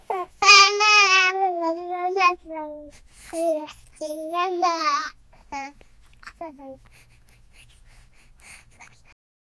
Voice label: mom whispering evil words